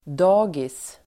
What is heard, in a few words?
"dagis", Swedish